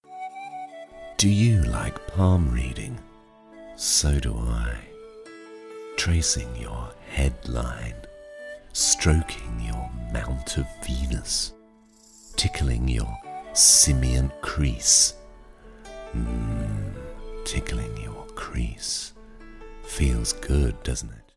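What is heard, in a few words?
promo, English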